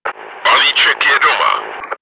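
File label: ally captured, чешской озвучки; Czech; Palíček je doma!; Флажок дома!; World of Tanks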